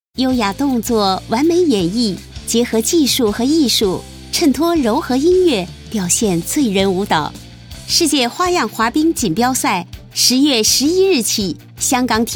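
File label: Mandarin, narration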